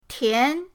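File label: "tian2", Mandarin Chinese, pinyin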